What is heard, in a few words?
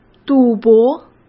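"赌博", Mandarin Chinese; chinese-flashcards; hsk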